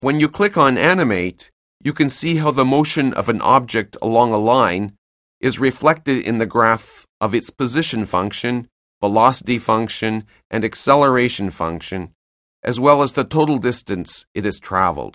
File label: narration; CALCULUS 7E; cengage